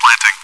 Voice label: chitchat, bot, voice commands; svencoop